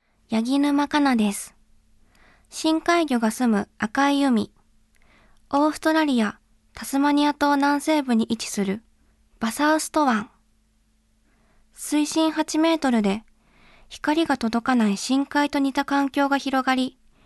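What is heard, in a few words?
Voice Sample, Narration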